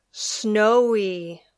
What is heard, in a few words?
"snowy", English